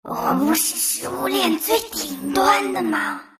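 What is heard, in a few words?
death, Chinese; dota2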